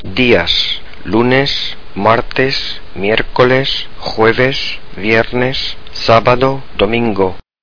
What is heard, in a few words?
spanish days